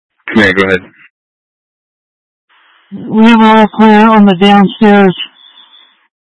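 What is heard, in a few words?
Incident Tac, Scanner audio recording; Incident Tac 1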